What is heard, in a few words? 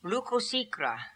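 "Lookosikra", pronunciations; Fourth Way; Beelzebub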